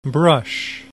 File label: "brush", English